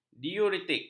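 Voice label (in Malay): "diuretik", Malay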